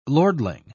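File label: "lordling", British English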